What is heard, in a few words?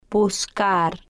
"buscar", spanish